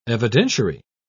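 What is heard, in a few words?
"evidentiary", British English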